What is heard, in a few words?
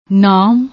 "navv", Punjabi